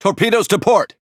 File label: En Speech Alarms Torpedo Left; World Of Warships